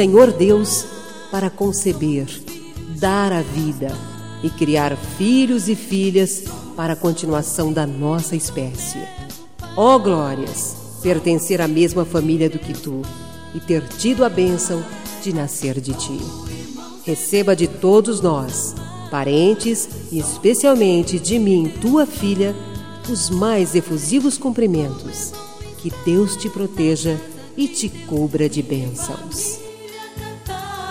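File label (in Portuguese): Evangélica Aniversário de Mae, female, telemensagens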